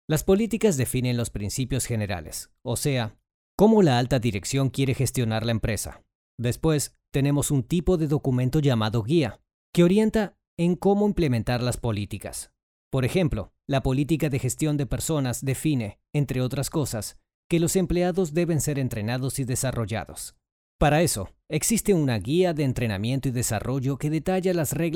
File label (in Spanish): explicativo neutro